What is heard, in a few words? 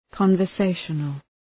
conversational